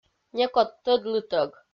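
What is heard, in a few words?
"некод тӧдлытӧг", Komi, female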